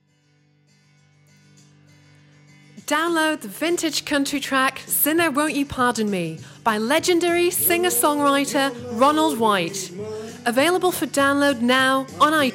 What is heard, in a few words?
Music Promo